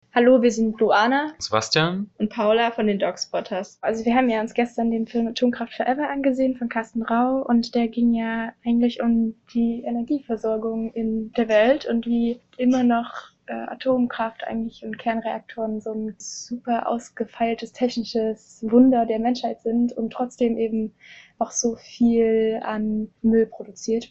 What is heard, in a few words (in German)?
Einleitung Erwartungen mit Vorstellung